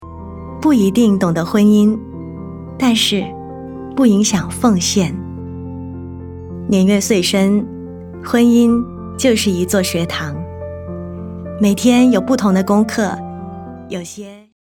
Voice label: Mandarin IVR/ Voice Prompt, Style: Friendly and Welcoming; U Mobile